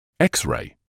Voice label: "x-ray", medical English

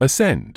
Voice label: "ascend", medical english uk

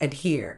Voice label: "adhere", Medical English

